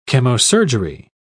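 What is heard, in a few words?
"chemosurgery", British English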